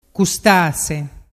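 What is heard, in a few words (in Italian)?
"custase", Vernazzese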